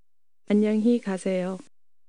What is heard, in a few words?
goodbye, Korean